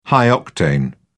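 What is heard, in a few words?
"high-octane", English, British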